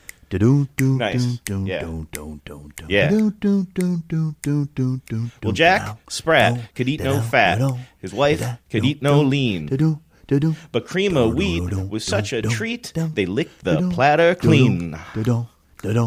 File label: reciting ad; cream of wheat